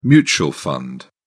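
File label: "mutual fund", British English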